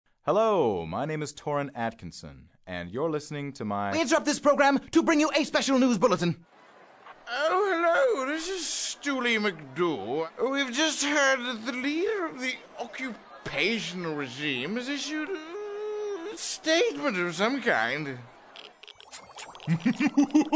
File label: Character Voiceover